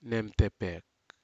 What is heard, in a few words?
"nemtepek", Aneityum; bushes